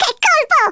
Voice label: PERFECT, Speech Italian; Worms Armageddon